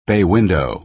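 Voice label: "bay window", American English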